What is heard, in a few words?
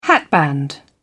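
"hatband", British English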